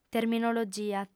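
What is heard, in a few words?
"terminologia", Sardinian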